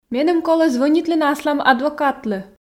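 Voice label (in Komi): меным колӧ звӧнитлыны аслам адвокатлы, Komi, female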